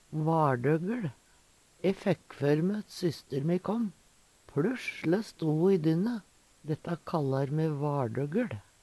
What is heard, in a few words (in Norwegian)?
vardoggel, Numedalsmål